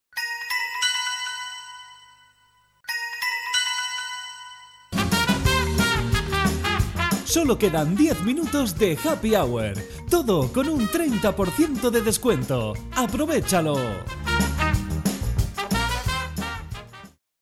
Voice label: HAPPY HOUR 20 MINUTOS ESPANOL; HUMANA; ANDALUCIA-MADRID; HAPPY HOUR SEMANA SANTA

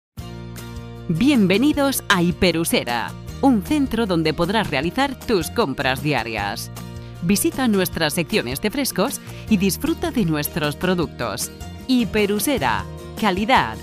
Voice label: APERTURA FEMENINA; APERTURA VILLAVERDE

